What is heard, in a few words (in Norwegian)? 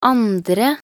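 "andre", Norwegian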